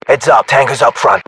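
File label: threat infantry generic, battlechatter British; english; uk; localized english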